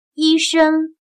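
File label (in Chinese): "医生" Mandarin Chinese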